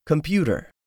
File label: "COMPUTER", English; 3000 words